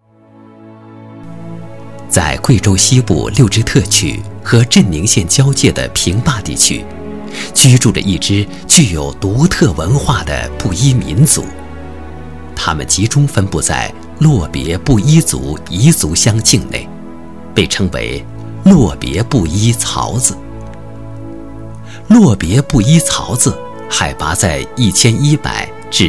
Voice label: 男 配音, 国语男声配音; 少数民族专题; cctv国宝档案老师配音

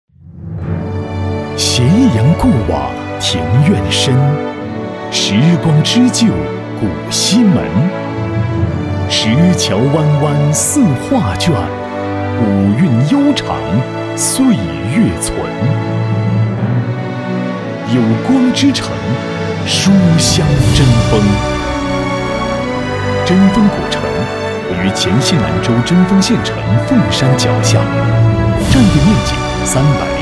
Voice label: 男 专题 古城宣传片

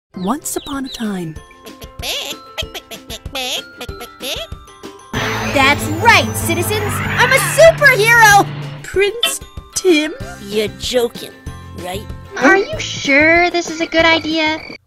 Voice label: 外籍英女 动画片角色混剪

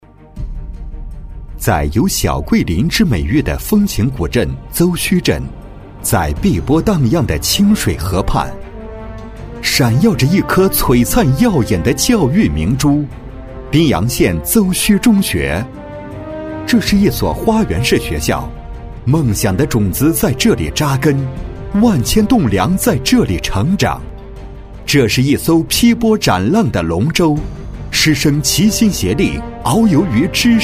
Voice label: 男-宣传片-学校类; 学校类样音

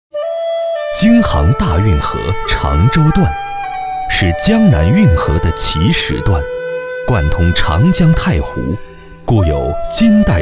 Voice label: 男, 纪录片, 国风类型京杭大运河

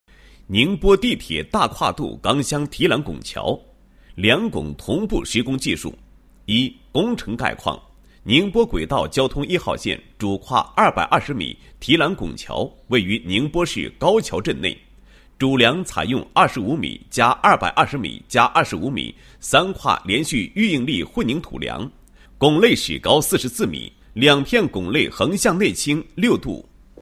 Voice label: 男 解说词; 工法录像

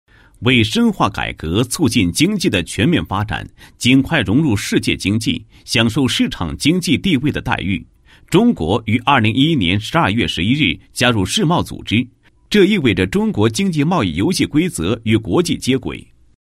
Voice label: 男 经融专题; 为深化改革 中国经贸发展

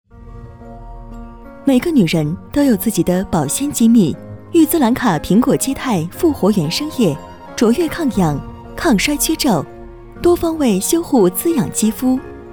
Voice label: 女 品牌时尚科技感广告; 御资兰卡

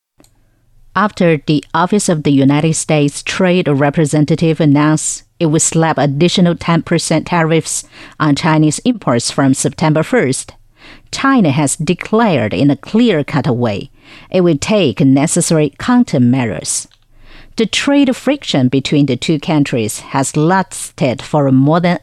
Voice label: 新闻 英语新闻样带

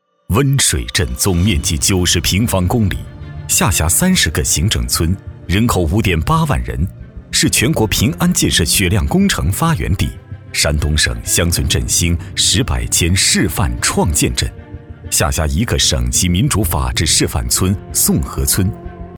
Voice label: 男, 旁白, 大气沉稳

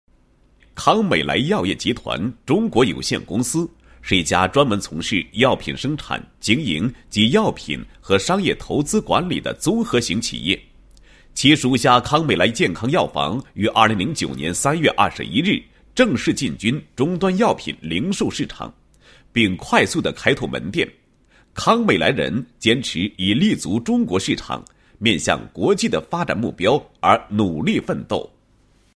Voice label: 男27-企业, 简介; 康美来药业集团中国有限公司简介

